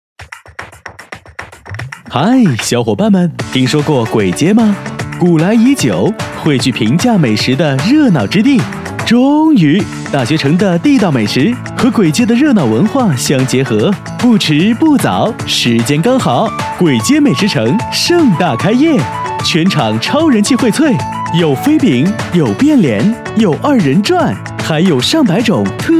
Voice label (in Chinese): 男 较欢快; 簋街